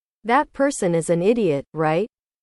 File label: english